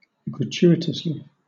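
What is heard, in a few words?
"gratuitously", British English; pronunciations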